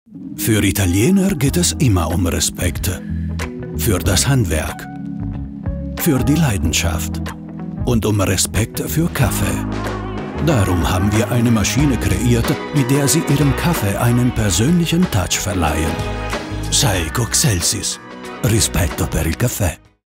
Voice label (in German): Werbespot Deutsch, Commercial (Werbung), German, Akzent: Italian; Saeco